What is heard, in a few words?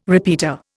"repeater", Indian